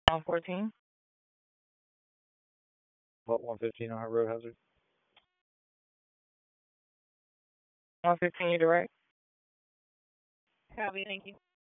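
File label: Police Southwest District Dispatch, p25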